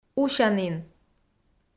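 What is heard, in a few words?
"усянін", Komi, female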